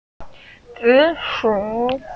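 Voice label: "zi3 shu3", Mandarin Chinese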